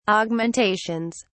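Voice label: "augmentations", British English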